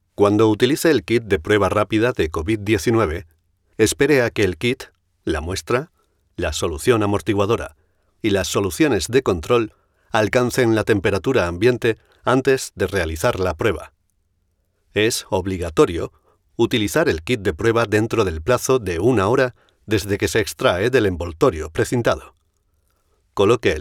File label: spanish medical narration